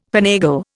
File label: "finagle", Indian